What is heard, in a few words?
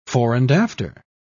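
"fore-and-after", en, uk British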